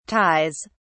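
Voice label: "ties", British English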